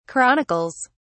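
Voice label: "chronicles", British English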